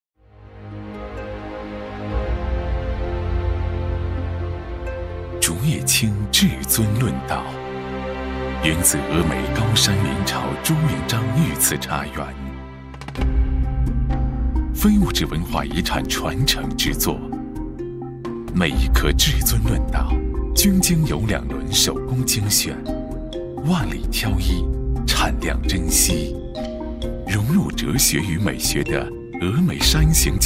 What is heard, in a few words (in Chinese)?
国语男 形象片 茶类; 竹叶青“至尊论道”